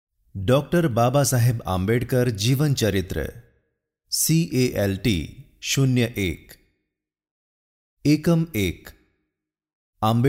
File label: Gujarati Audio book